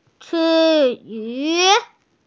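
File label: "ci2yu3", Mandarin Chinese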